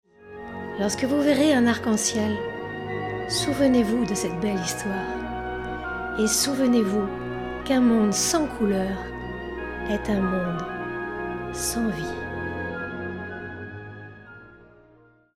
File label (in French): Narration Conte Voix naturelle nostalgique; Magicienne